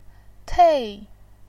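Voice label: "tei", Mandarin Chinese, fourth tone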